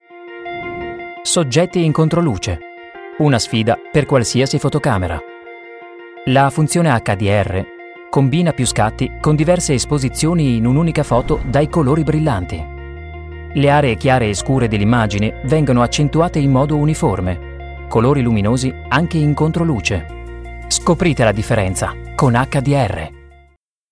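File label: Italian Kommentar Neutral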